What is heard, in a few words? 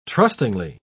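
"trustingly", British English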